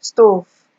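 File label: "stove", naija, female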